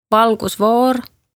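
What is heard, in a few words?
"Valgusfoor", Estonian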